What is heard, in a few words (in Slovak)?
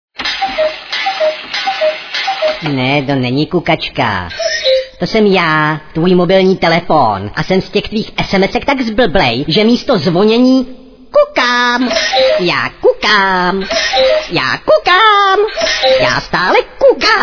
sms hlásky cz